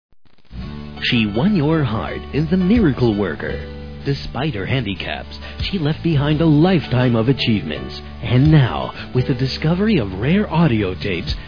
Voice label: Commercials; Hellen Keller the Blues; Freekin Weird Audio